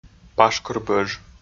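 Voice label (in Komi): "пашкыр бӧж", Komi, male; audio dict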